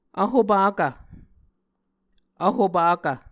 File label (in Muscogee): "vhopakv", Muscogee